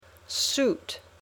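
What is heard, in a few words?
"suit", English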